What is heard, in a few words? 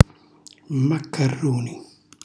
"maccarruni", Calabrese; cucina calabrese; Glossario